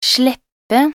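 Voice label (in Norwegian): "sleppe", Norwegian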